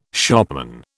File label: "shopman", British